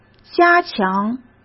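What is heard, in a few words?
"加强", Mandarin Chinese; hsk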